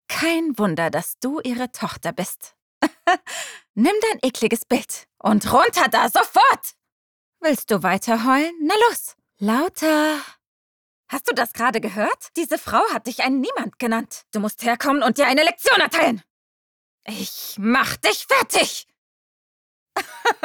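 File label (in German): Synchron zickig aggressiv Eskalation, Sprecherin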